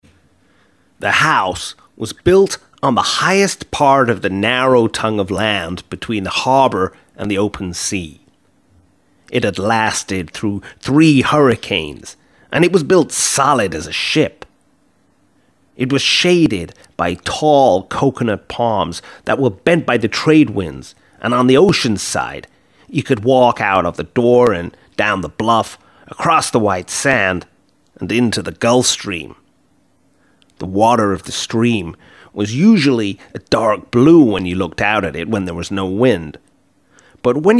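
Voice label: english american male voice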